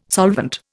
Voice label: "solvent", Indian